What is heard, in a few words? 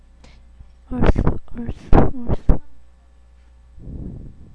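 "also", spoken word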